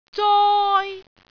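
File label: "zoi1", Cantonese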